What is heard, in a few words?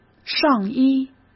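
"上衣", Mandarin Chinese; hsk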